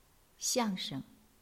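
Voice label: "xiang4sheng", Chinese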